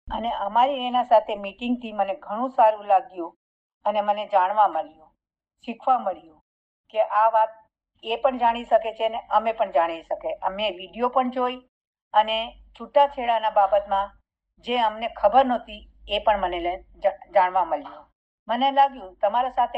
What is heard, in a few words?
Gujarati quote